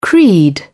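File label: "creed", British English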